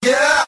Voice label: "yeah", group of men

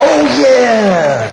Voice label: "oh yeah", voice; carlin

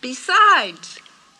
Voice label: "besides", female

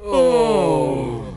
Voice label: "oh" men relieved; ooh ahh

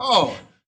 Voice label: "uh", male

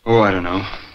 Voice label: "oh i don't know", male; Voice

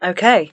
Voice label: "okay", expletives

